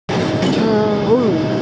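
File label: "tie3lu4", Mandarin Chinese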